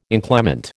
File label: "inclement", American